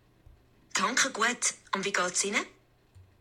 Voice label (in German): "danke guat", Zurich, female